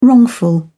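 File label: "wrongful", English, British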